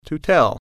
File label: "tell", English